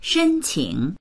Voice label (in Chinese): "shen1qing3", voices; 申请; 申請（する）